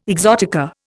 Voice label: "exotica", Indian

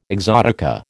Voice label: "exotica", American

